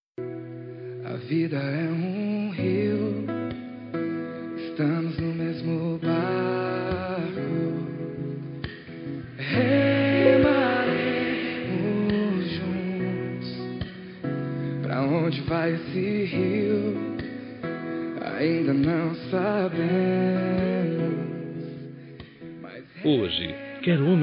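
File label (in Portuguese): avo masc, Telemensagens; Aniversário de Avô – Voz Masculina; Telemensagens para todas as ocasiões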